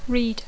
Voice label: "read", English